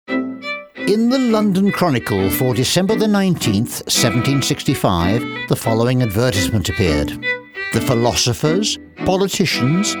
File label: audiobook